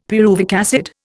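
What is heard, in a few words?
"pyruvic acid", Indian English; Dictionary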